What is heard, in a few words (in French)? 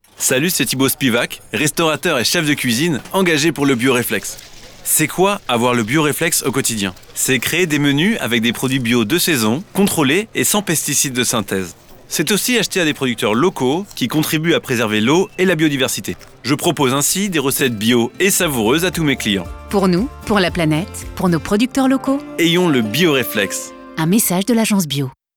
Voix Off